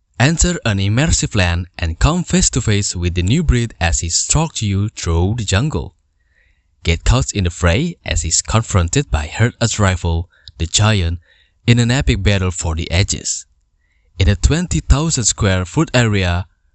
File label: Casual English